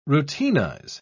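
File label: "routinize", British English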